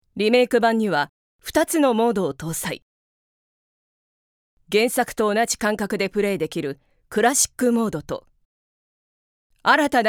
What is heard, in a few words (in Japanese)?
ゲームCMナレーション